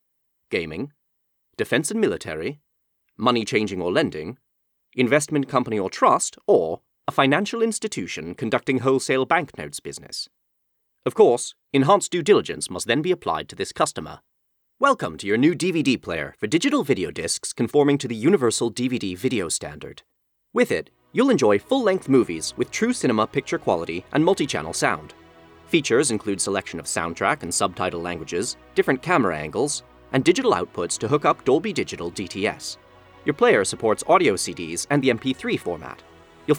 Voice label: Corporate and Technical

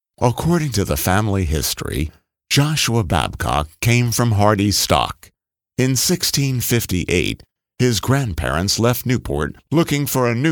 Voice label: Docu and Explainer Dry

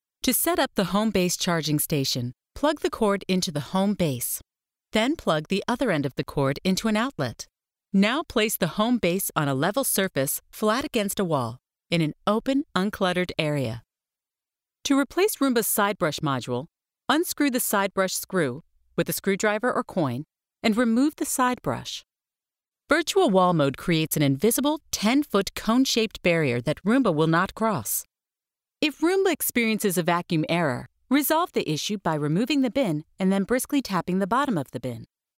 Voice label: instructional narration; Roomba

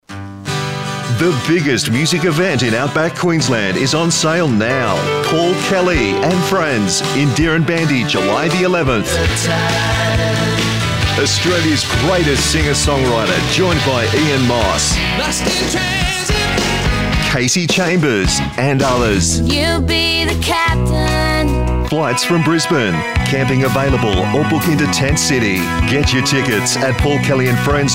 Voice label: Promo

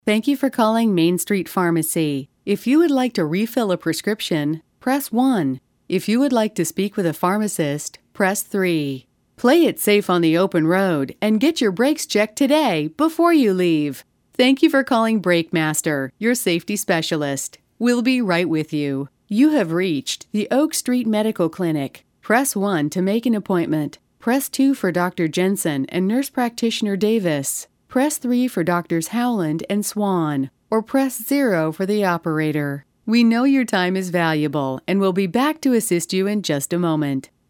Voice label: IVR and On Hold

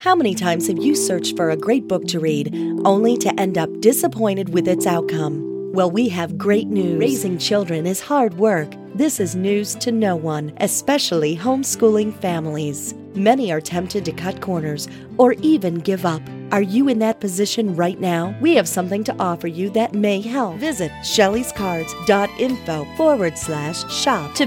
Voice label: TV Radio Promotions

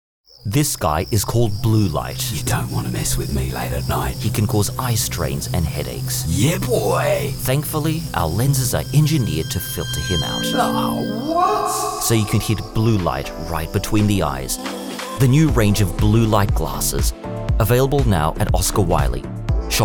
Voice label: ad; Oscar Wylee

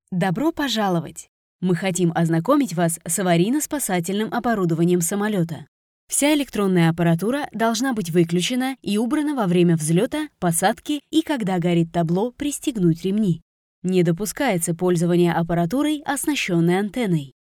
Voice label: Safety Announcement

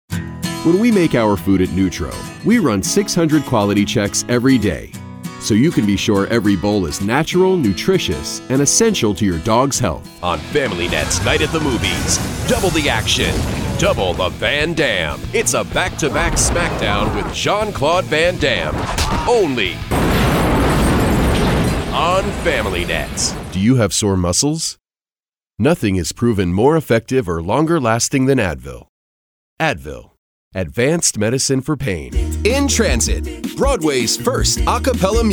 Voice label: Commercial Voice Over

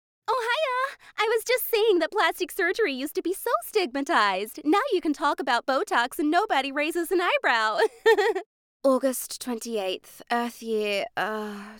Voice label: Character; Voice Over for Animation and Cartoon Characters

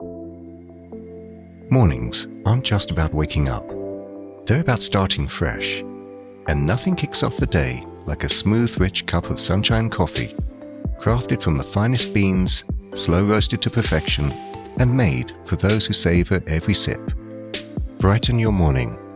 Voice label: british voice over coffee ad

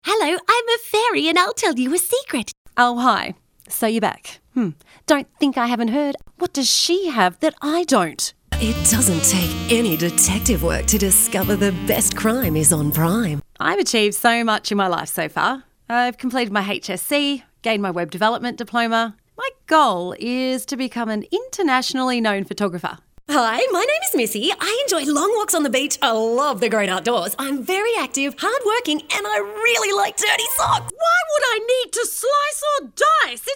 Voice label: character

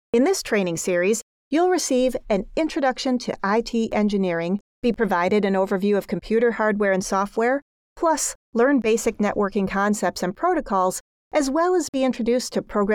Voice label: ELearning Explainer; IT

